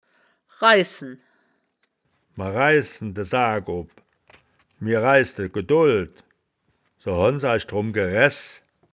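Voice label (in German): "raihsen", wittlicher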